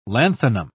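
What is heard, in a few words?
"lanthanum", British English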